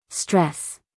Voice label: "stress", us female